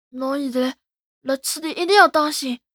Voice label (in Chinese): 男孩 对话